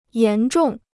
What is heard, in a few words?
"严重", Chinese